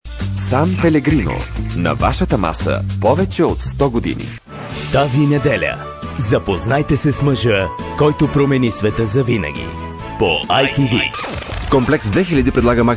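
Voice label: bulgarian male voice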